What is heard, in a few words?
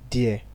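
"dear", Nigerian Pidgin, male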